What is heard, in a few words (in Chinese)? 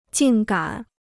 "竟敢", Chinese